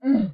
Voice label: oof low pitch

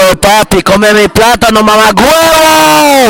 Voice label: accent

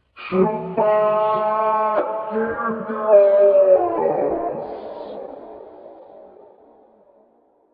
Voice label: helium version; chupa kyrgios

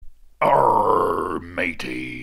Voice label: pirate saying arrr matey

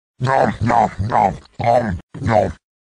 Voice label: heavy om nom nom; tf2

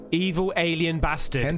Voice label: evil alien

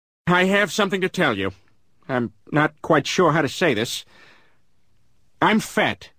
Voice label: sad i am fat

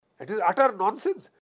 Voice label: short utter nonsense

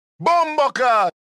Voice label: bombaclat jamaican

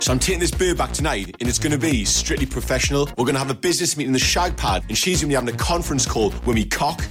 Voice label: conference call

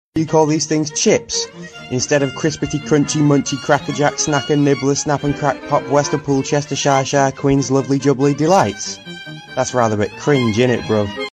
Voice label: british chips

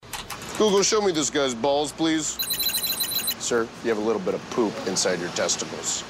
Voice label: google robot; eric andre

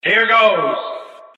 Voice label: narrator here goes; cuphead

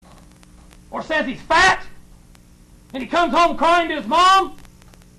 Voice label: fat kid

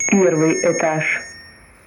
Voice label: first floor announcement

